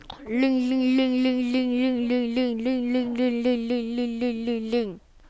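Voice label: "ring", spoken word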